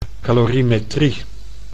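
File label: "calorimetrie", Dutch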